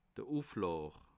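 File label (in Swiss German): "uflaw", Bas Rhin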